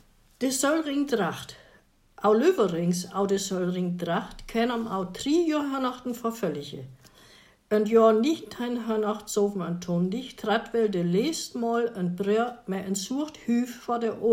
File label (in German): Soelrin Text Sylter Tracht; Sylter Osten; Soelring Foriining; Expedition Uthlande